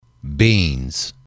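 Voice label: "beans", english, male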